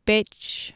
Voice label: "bec" Anglo Saxon